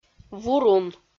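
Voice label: "вурун", Komi, female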